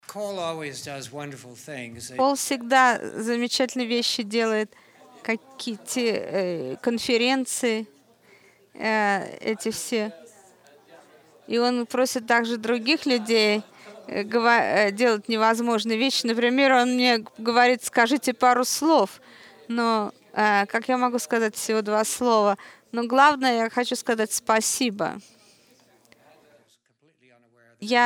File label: Russian; iclrs symposium 2009